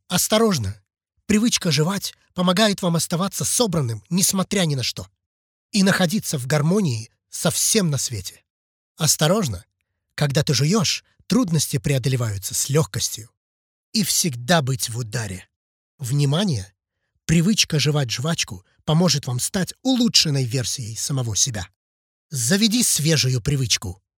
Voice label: male russian voice over talent upbeat